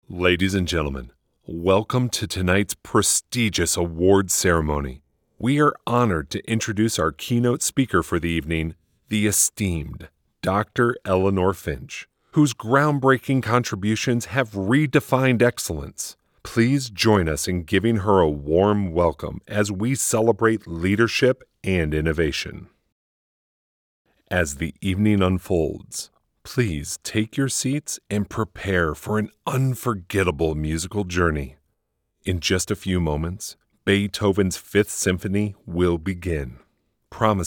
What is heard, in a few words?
voice of god